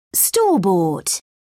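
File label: "store-bought", English, British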